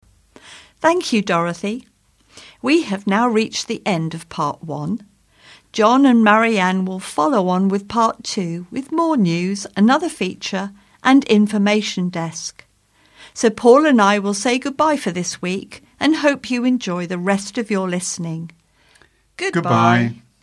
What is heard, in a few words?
Closing Announcement